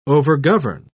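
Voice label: "overgovern", British English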